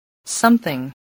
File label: "something", English voice